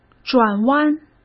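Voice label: "转弯", Mandarin Chinese; chinese-flashcards; hsk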